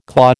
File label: "claw", American